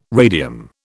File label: "radium", British